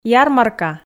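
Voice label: "ярмарка", Komi, female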